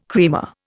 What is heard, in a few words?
"creamer", Indian